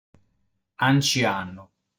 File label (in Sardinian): "Ancianu", sardu